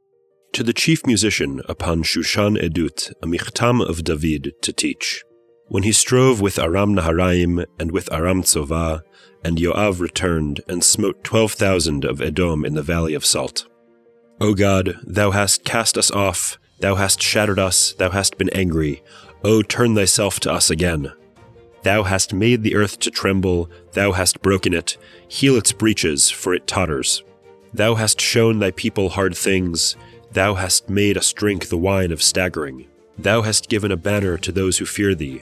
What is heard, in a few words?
poetry and prayer psalm english